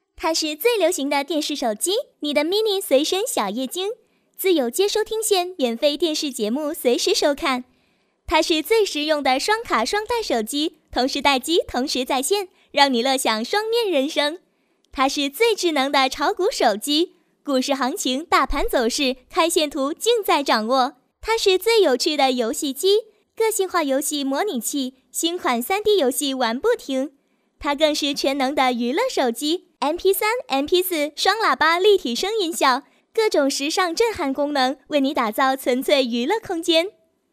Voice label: 广告 电购 年轻; 手机直销

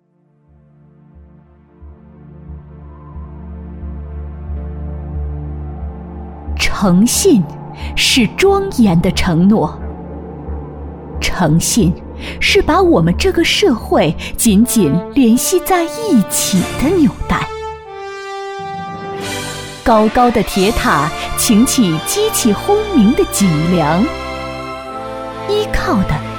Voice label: 朗诵 电力诗歌

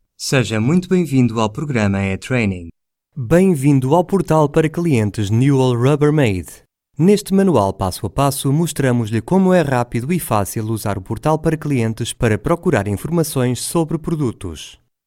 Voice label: 葡萄牙语男 外语 小语种

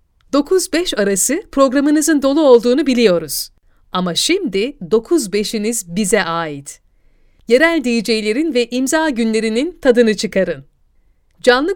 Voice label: 土耳其语女 外语 小语种

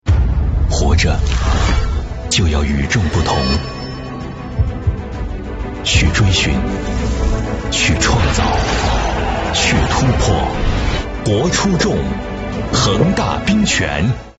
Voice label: 广告 食品饮料 大气; 恒大冰泉

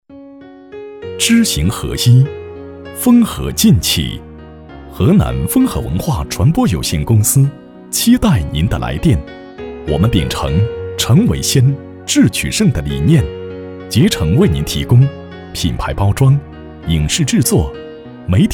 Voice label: 彩铃, 男国; 风禾文化

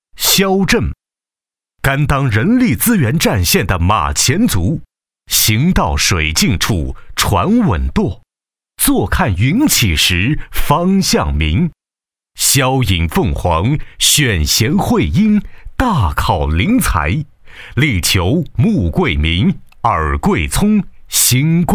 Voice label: 专题 颁奖 人物介绍篇, 男国

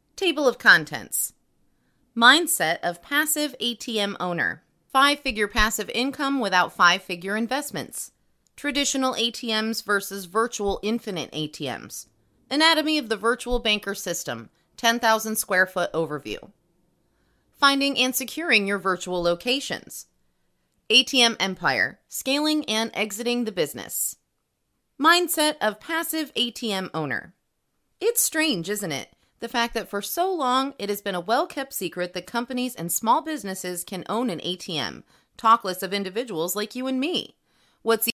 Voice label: 外籍女英 外语 美式英语 样音

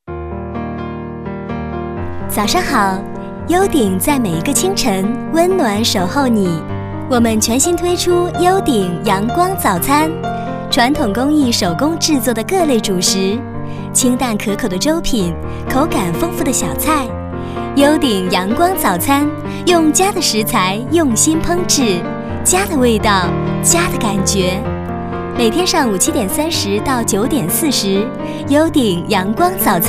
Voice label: 多媒体 广播提示 店铺广播

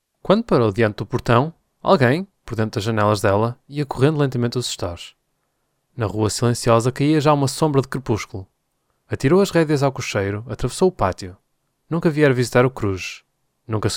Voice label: 葡萄牙语男, 外语; 小语种

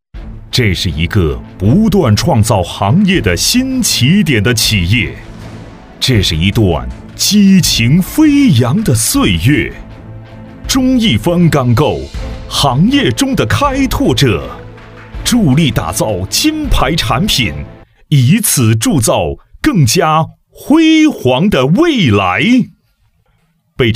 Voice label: 男国 宣传片 企业 钢构公司 激情

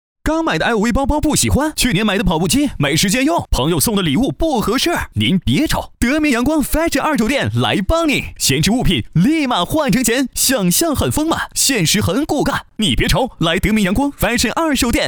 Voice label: 广告 促销 二手店 欢快

